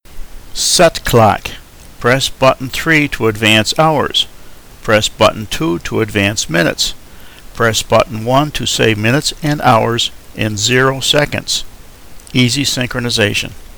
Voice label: set clock, male English